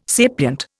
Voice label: "sapient", Indian

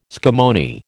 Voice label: "scammony", American

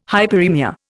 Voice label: "hyperaemia", Indian

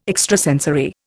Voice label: "extrasensory", Indian

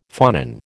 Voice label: "phonon", American

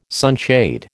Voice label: "sunshade", American

